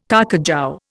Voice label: "carcajou", Indian

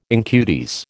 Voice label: "incudes", American

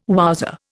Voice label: "wowser", Indian

